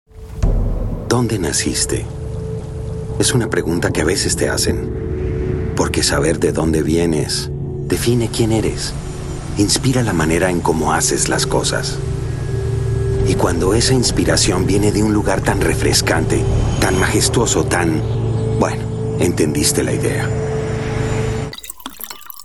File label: Spanish VO Narration